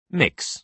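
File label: "mix", British English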